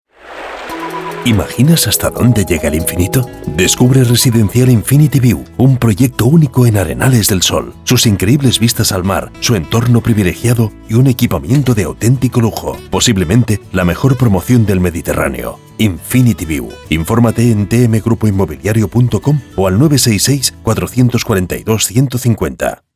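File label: European Spanish, Commercial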